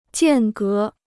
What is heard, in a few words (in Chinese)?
"间隔", Chinese